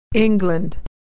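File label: "England", American English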